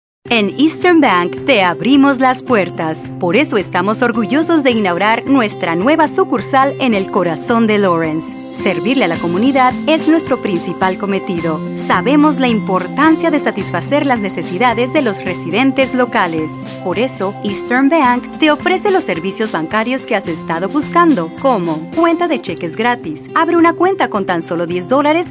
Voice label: Spanish; Eastern Bank